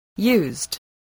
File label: "used", English